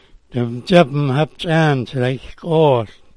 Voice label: "dzida", smalgyax

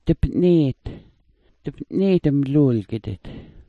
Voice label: "dp'niit", smalgyax

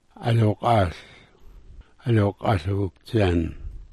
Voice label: "aluk'ol", smalgyax

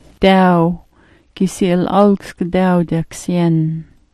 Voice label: "daaw", smalgyax

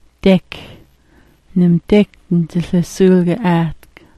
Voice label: "dek", smalgyax

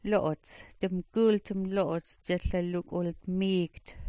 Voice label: "lo'ots", smalgyax

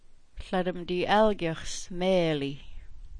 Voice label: "algyax", smalgyax

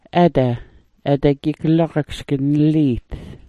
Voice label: "ada", smalgyax

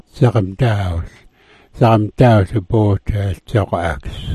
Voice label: "dzagmdaawl", smalgyax

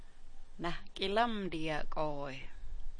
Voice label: "diya", smalgyax

